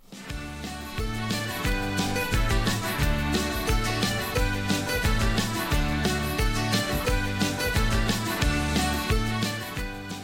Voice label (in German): Eifeler Mundart; Bienen und Imker